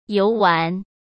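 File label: "游玩", Mandarin Chinese; hsk; chinese-flashcards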